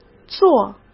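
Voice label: "做", Mandarin Chinese; chinese flashcards; hsk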